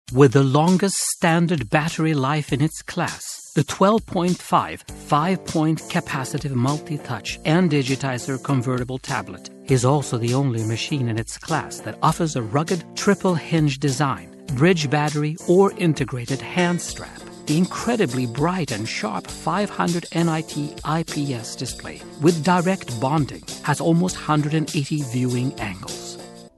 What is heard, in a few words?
swedish accent